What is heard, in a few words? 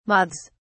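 "moths", British English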